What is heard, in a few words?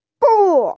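"bu4", Mandarin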